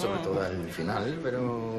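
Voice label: Voice Acting es Male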